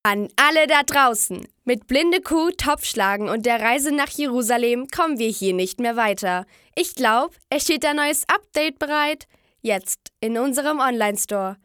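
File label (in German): Mädchen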